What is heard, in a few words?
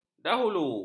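"dahulu", Malay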